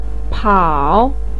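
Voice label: "pao3", Mandarin pinyin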